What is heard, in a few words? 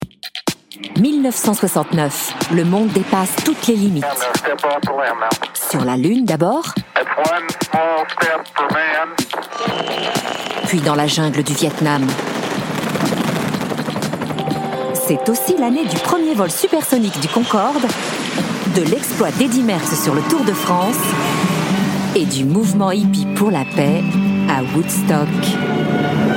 Eurovision Ad